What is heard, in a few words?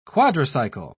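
"quadricycle", English, British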